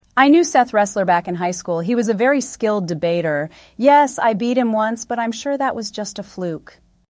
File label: synthesized audio